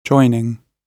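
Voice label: "joining", British English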